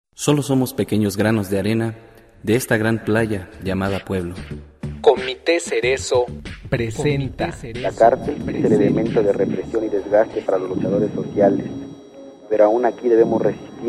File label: Radio promo; Radio Comite Cerezo